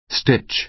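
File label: "stitch", American English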